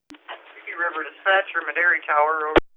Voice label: Radio; Medary